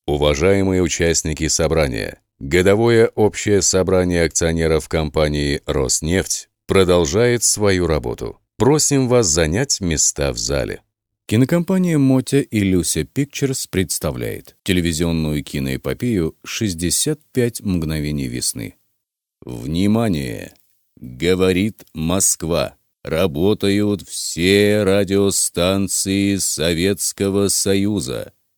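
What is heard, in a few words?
Russian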